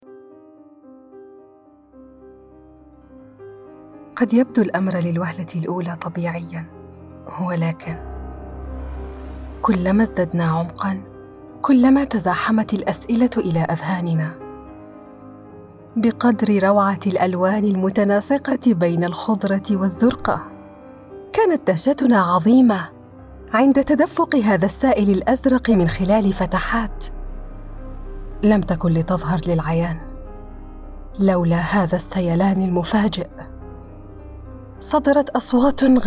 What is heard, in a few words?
english tv shows